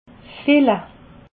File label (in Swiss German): "fille", alsace